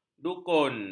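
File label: "dukun", Malay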